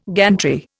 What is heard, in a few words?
"gantry", Indian